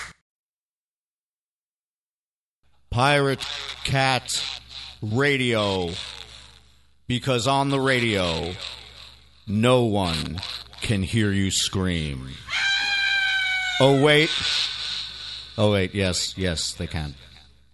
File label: Radio Promo